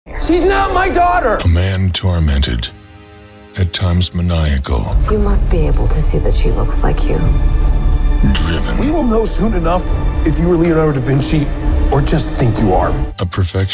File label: Trailer, english american; Trailer General public